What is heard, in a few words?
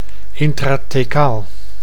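"intrathecaal", Dutch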